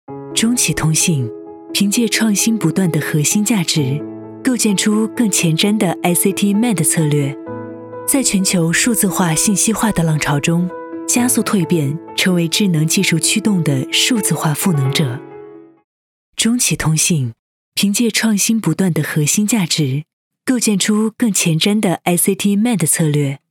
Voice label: 女 宣传片 科技大气

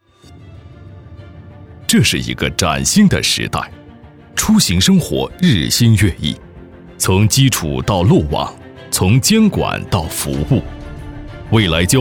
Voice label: 男 企业宣传片

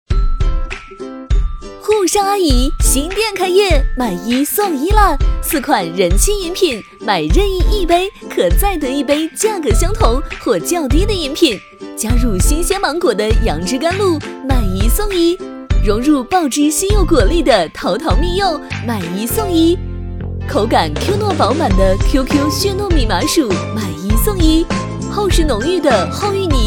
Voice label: 女 青春活力 广告; 沪上阿姨

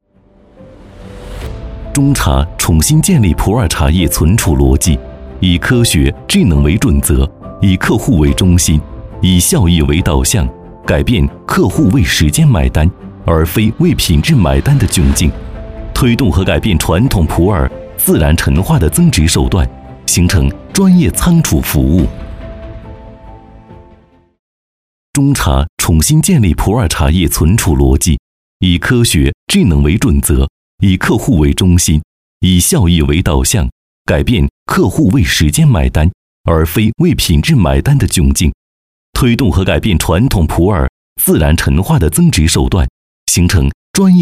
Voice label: 男, 专题; 中茶

